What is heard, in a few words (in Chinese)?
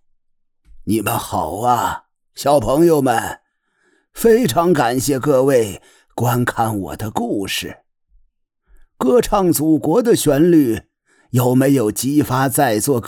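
男 低沉老年音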